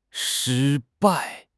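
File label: "shi1 bai4", Chinese; 汉语口语新时代-初级下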